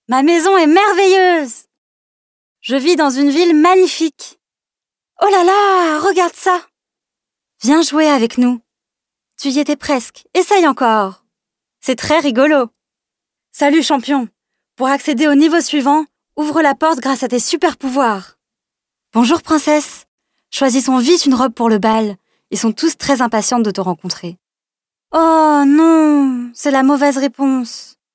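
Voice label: French female children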